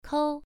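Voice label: "kou", Mandarin Chinese, first tone, pronunciation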